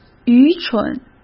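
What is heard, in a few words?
"愚蠢", Mandarin Chinese; chinese-flashcards; hsk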